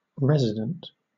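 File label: "resident", British English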